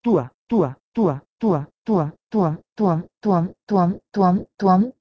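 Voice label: Proto-Indo-European tuh2 to Sanskrit twam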